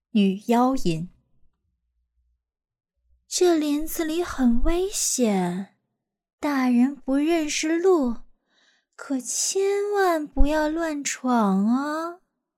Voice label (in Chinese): 女妖音